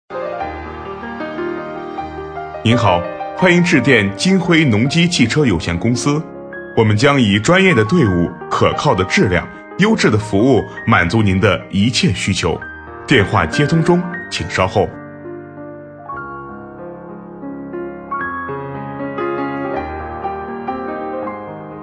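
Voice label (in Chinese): 男彩铃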